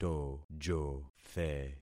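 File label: "tojothe", concatenated syllables; Affixing experiment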